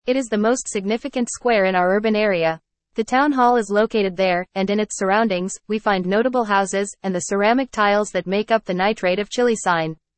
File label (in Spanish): INGLES PLAZA DE ESPANA